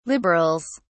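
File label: "liberals", British English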